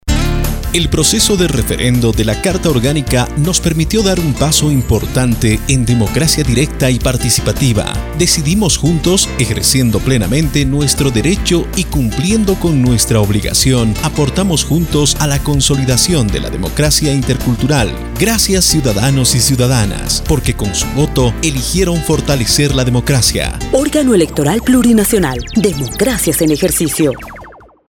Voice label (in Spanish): cuña tribunal electoral agradecimientos y resultados castellano; chuquisaca